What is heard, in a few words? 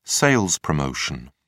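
"sales promotion", British English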